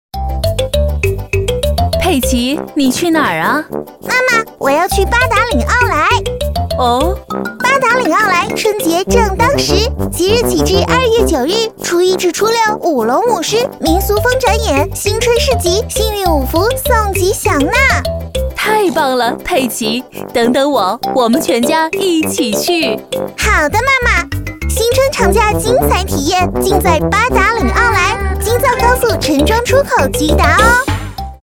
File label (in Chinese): 女, 模仿佩奇; 奥特莱斯